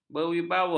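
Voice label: "berwibawa", Malay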